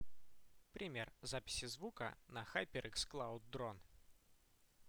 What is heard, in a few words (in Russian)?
Пример записи звука на HyperX Cloud Drone